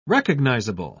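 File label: "recognisable", British English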